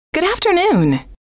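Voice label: good Afternoon, female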